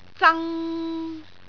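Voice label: "zang3", Cantonese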